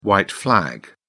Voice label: "white flag", uk

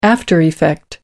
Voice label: "aftereffect", uk

